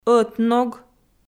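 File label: "ӧтног", Komi, female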